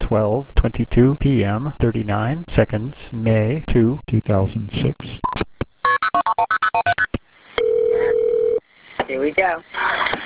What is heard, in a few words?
VoIP Test Call